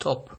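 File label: "top", Nigerian Pidgin, male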